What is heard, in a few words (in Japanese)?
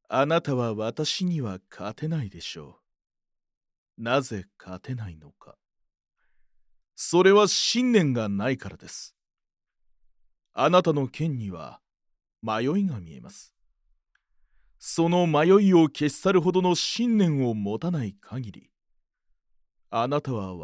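male, 声優, クールな男; narrator men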